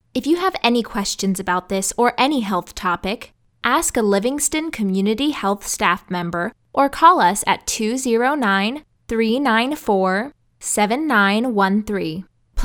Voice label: female us; lektoring